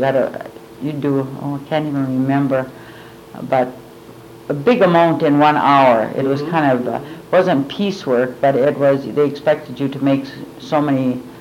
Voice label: Personal history with 55 year old female Chisholm Minnesota; american english dialect